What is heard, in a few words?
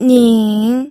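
"ning", third tone, pinyin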